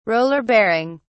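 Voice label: "roller bearing", English, British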